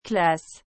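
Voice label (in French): "classe", French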